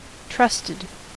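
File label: "trusted", American English; pronunciations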